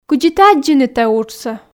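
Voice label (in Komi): кыдзи ті аддзинныд тайӧ уджсӧ, Komi, female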